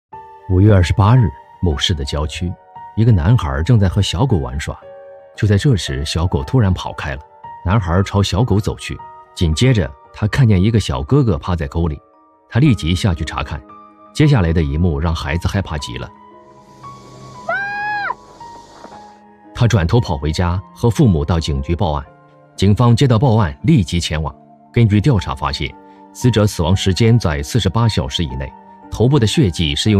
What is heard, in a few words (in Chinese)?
电影解说, 声音浑厚有磁性，擅长短视频影视解说，风格大气沉稳